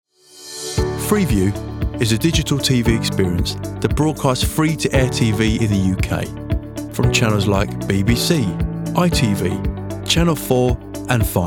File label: Corporate Reel